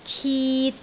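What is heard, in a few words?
"kit3", Cantonese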